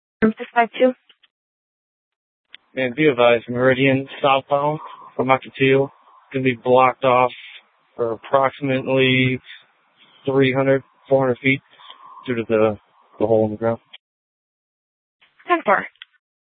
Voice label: Sheriff, scanner